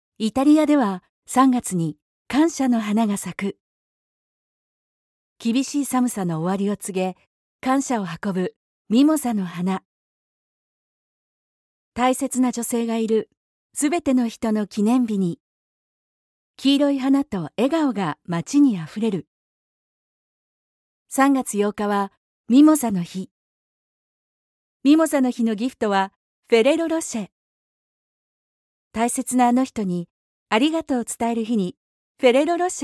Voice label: Home Studio recording